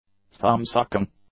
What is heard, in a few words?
"thumb-sucking", English; nouns